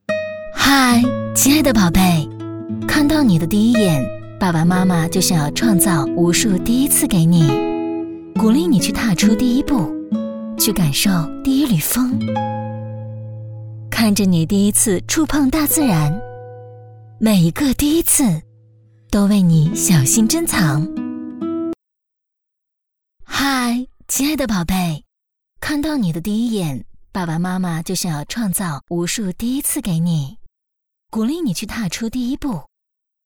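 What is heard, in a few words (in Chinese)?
B女『广告』; 嗨，亲爱的宝贝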